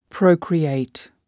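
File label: "procreate", English word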